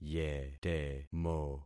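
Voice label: "yetemo", concatenated syllables; Affixing experiment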